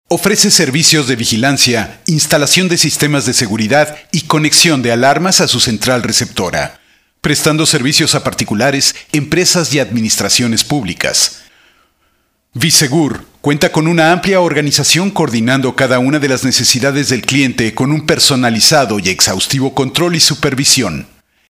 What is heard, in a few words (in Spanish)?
locutor mexicano mexican voiceovers